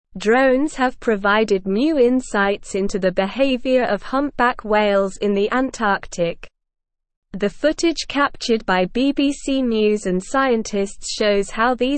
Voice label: English SLOW Reading; Drones Capture Acrobatic Whales and Aid Conservation Efforts